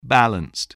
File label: "balanced", english